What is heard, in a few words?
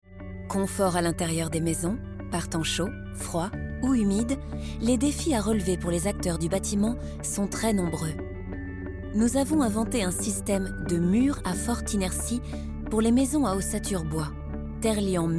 INSTIT FR; voix-off; SaintGobain